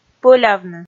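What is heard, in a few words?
"пӧлявны", Komi, female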